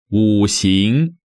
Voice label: "Wuxing", Chinese

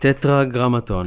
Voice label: "Tetragrammaton", Hebrew

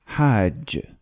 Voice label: "Hajj", Arabic

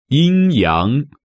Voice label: "Yin Yang", Chinese

